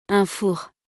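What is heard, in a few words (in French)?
"un four", French; vocabulaire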